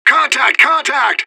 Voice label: radio bot under fire; fbihrt